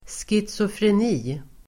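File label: "schizofreni", Swedish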